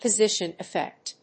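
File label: "Position-effect", English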